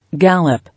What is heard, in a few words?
"Gallop", English